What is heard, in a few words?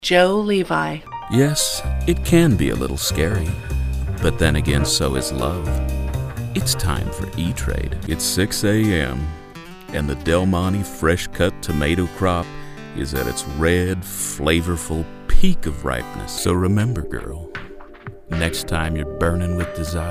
male voice